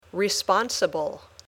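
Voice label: "responsible", English word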